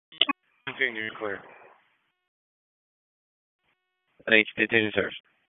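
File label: FIRE Operations, p25; FIRE OPS 1; wake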